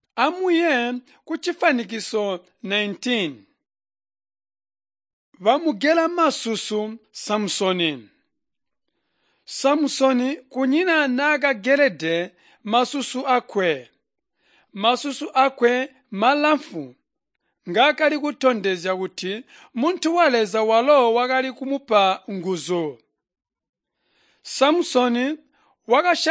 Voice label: tonga plateau, drama; chitonga bsz nt drama